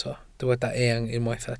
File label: "eang", welsh